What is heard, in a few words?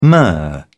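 "myrrh", British English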